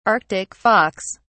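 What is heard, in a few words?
"arctic fox", English, British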